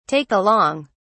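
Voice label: "take along", British English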